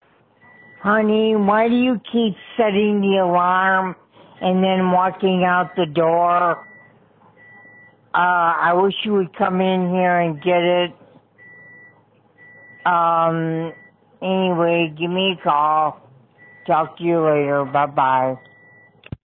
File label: voicemail